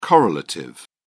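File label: "correlative", British English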